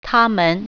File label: "they", Mandarin